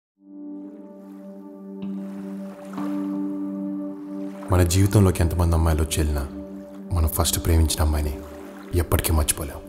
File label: Tholi Prema Love Dailouge Telugu; Varun Tej